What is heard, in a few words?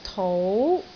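"tou2", Cantonese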